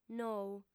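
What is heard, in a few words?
"nou", female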